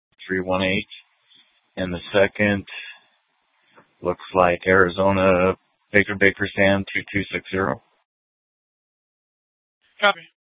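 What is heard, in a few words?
police dispatch radio, scanner; TOPAZ Regional Wrlss Cooperative (TRWC) - Mesa Simulcast; Mesa PD Dptmnt; 1 Fiesta Dispatch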